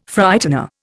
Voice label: "Frightener", Indian

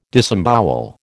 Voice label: "disembowel", American

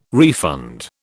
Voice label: "refund", British

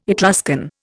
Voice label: "Etruscan", Indian

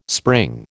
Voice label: "spring", American

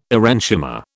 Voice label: "aerenchyma", British

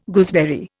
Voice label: "gooseberry", Indian

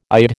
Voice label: "ide", American

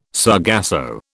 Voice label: "sargasso", British

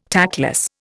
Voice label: "tactless", Indian

